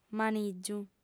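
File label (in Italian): "manìgiu", lingua sarda, fem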